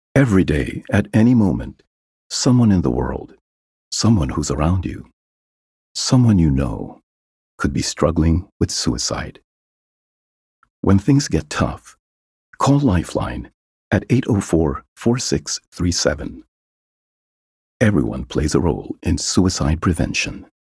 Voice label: English (Concerned, Hopeful)